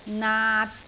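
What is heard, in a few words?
"naat3", Cantonese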